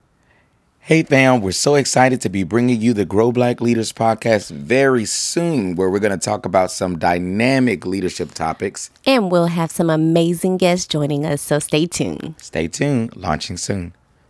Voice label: Podcast promo audio